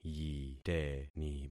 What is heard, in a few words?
"yiteni", concat syllables; Affixing experiment